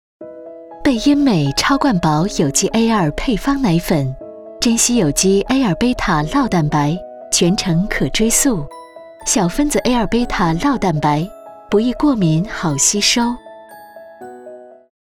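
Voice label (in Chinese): 广告温柔奶粉; 贝因美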